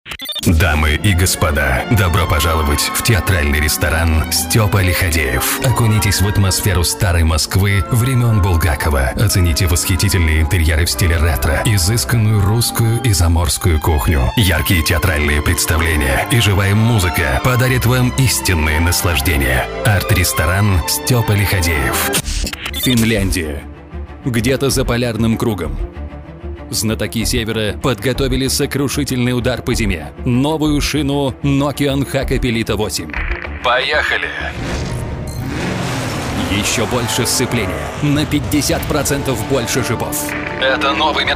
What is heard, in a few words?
russian belarusian male russian showreel